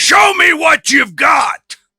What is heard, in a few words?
voice pirate captain show me what you've got; arrgh-hoops